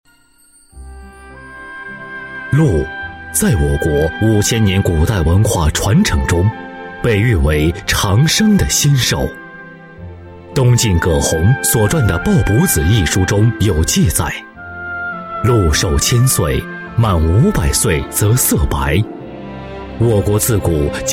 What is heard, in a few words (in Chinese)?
男 大气专题; 国语男声配音; 和之元